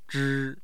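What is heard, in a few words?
"zhi1", Mandarin Chinese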